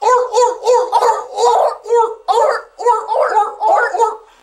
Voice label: ork ork ork ork, gibbrish